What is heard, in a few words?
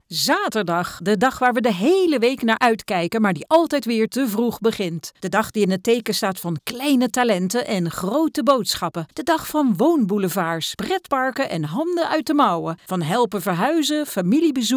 commercial; Skoda